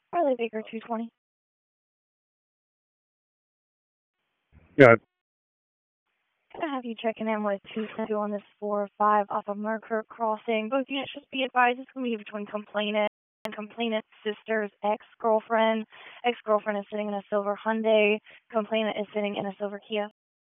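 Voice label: Police District Dispatch; Raleigh; Northeast; wake; p25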